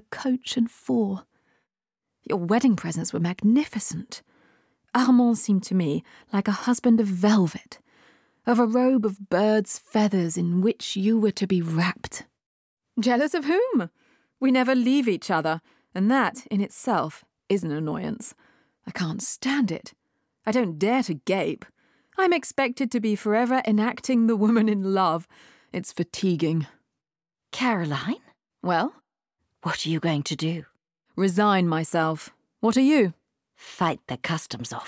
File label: ENG Conversation, fiction radio; voix-off; Musee Balzac